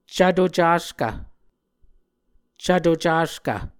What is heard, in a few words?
"cvto caskv", muscogee